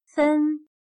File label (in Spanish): "纷", Chino